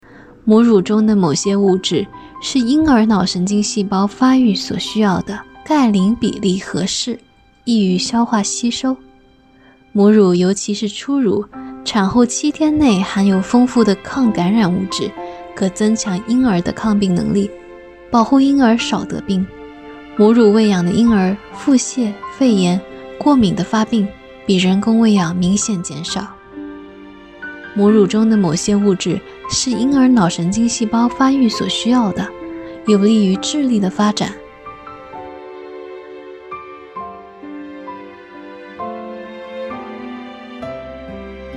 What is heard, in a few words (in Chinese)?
专题 母乳喂养 科教